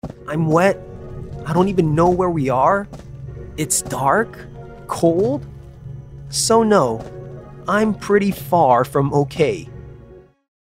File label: Male Video Game